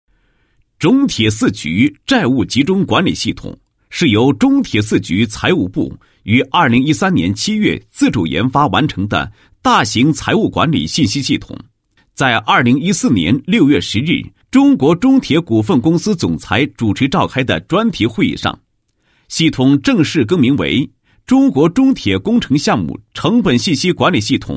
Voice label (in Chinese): 男27-解说词; 债务集中系统